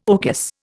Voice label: "orchis", Indian

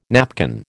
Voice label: "napkin", American

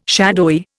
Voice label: "shadowy", Indian

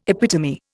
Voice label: "epitome", Indian

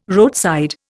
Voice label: "roadside", Indian

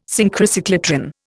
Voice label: "Synchrocyclotron", Indian

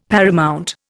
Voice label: "paramount", Indian; Dictionary

